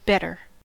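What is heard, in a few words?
"better", US English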